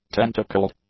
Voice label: "tentacled", English; adjectives